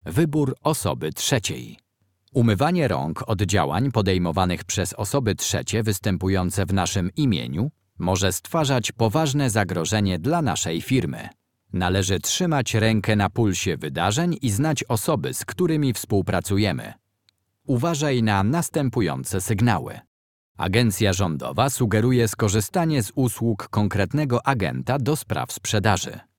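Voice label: Polish elearning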